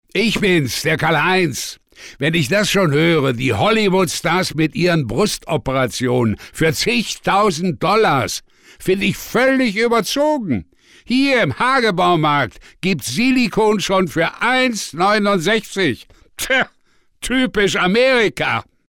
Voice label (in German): Funkspot Ladenfunk; Hagebau